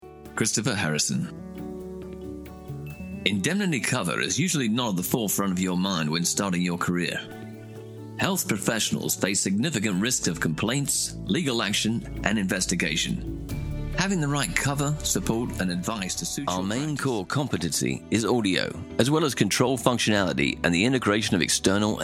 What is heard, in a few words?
British voiceover